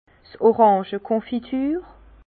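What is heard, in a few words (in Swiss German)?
"orangekonfiture", Alsace Bas Rhin; vin; marmelade d’orange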